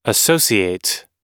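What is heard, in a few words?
"associate", American English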